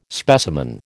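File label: "specimen", American English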